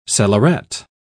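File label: "cellaret", British English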